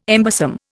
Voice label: "embosom", Indian